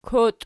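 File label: "koti" nominative, Archi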